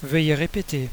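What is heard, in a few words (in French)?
"repeat", French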